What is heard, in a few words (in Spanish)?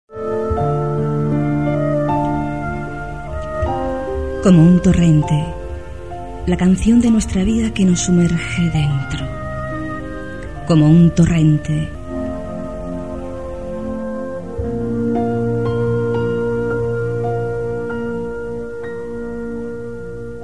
locutor podcast